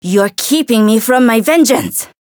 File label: Voice variable chant; tsukiha